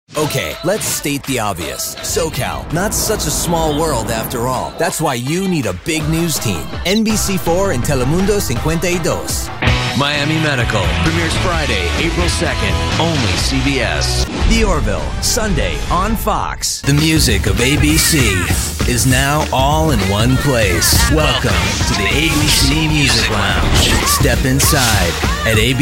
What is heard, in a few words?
promo men